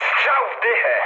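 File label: bomb success, mood low, Polish speech; War Thunder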